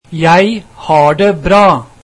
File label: Im good thank you, norwegian